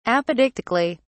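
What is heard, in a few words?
"apodictically", British English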